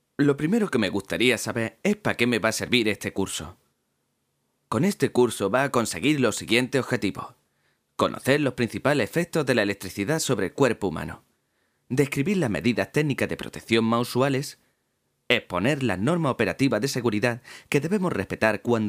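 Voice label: locutor andaluz spanish voiceover, male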